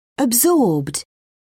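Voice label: "absorbed", British English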